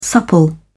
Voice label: "supple", en uk

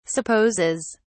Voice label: "supposes", en uk

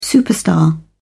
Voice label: "superstar", en uk

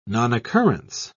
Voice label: "nonoccurrence", en uk

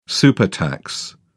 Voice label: "supertax", en uk

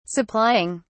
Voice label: "supplying", en uk

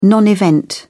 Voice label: "non event", en uk

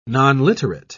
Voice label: "nonliterate", en uk

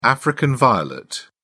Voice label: "african violet", uk

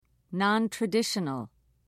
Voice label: "nontraditional", en uk

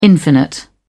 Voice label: infinite, uk

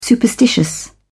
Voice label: "superstitious", en uk

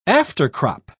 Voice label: "aftercrop", uk

